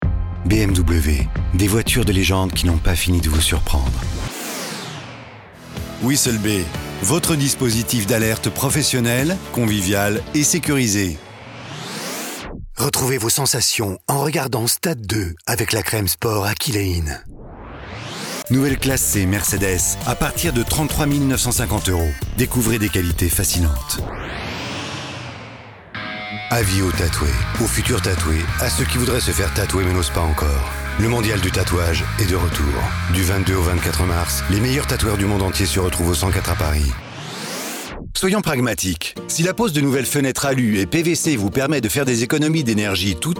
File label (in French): Pub, voix off